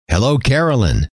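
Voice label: "hello", male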